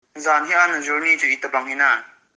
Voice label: How was the journey yesterday?, Kuki